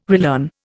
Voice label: "relearn", Indian

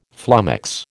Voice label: "flummox", American

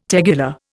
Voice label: "tegular", Indian

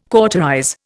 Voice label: "cauterize", Indian

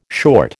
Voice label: "short", American

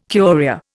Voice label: "Curia", Indian

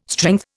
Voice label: "strength", Indian

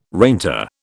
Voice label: "reinter", British

